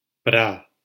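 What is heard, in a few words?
"pra", Spanish syllable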